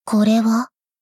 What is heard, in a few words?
shock